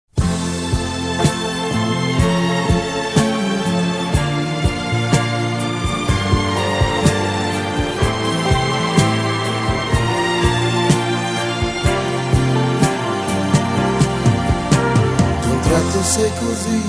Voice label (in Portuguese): Telemensagens Amor Masc; Telemensagens 2017